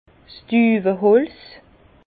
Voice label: "duweholz", Bas Rhin; vin; merrain

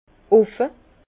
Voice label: "offe", Bas Rhin; vin; ouvert

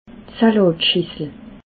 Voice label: "salatschissel", alsace

